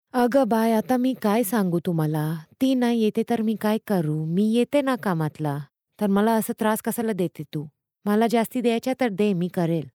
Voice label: Marathi